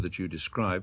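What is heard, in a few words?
thaTSHu describe, Standard Southern British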